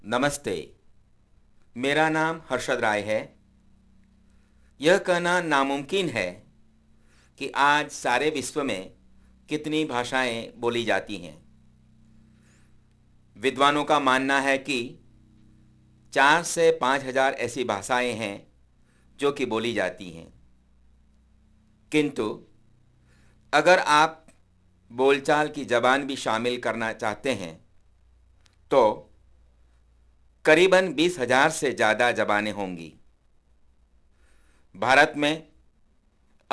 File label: hindi